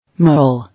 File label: "Mole", English